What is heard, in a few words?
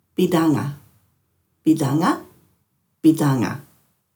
"bidhanga", Dhurga; oyster